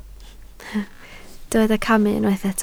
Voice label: "camu", Welsh

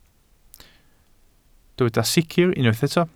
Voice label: "sicr", Welsh

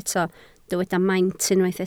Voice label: "maint", Welsh

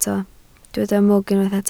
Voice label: "mwg", Welsh

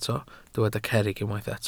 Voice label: "cerrig", Welsh

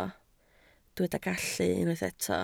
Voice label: "gallu", Welsh

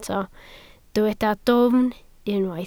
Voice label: "dwfn", Welsh

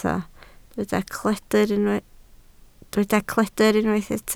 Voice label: "cledr", Welsh

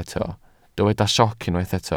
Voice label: "sioc", Welsh

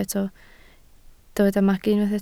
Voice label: "magu", Welsh

